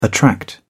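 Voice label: "attract", British English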